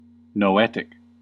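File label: "noetic", US English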